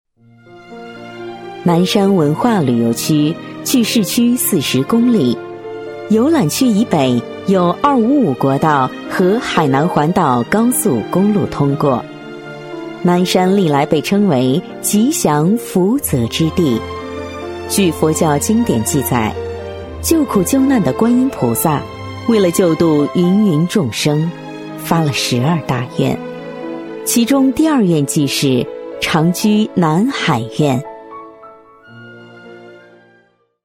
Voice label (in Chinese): 女 专题 成熟深情, 国语女声配音; 南山旅游